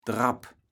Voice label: "Drapp", kaevels platt

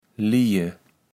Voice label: "lije", kaevels platt

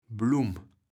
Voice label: "Blumm", kaevels platt

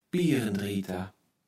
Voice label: "Pierendrieter", kaevels platt

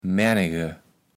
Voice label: "maennege", kaevels platt

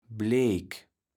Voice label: "bleek", kaevels platt

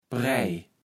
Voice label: "Prääj", Platt

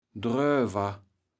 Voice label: "dröwer", kaevels platt

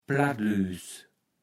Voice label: "Plattlüüs", kaevels platt

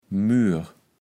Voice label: "Mur", Platt

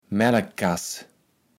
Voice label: "Mälkkass", kaevels platt

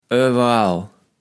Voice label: "owerall", Platt

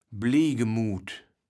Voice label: "blijgemut", kaevels platt

